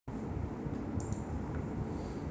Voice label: voice message